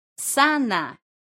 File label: "sana", esperanto